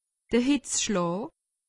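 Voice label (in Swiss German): "hitzschlaa", Alsatian; medecine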